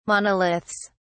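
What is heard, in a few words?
"monoliths", British English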